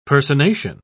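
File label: "personation", British English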